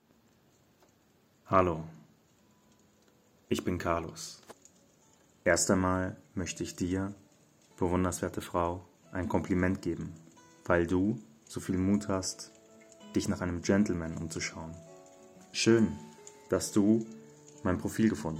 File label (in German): Stimme Deutsch